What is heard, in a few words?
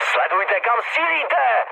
player friendly fire, speech cze, mood high; War Thunder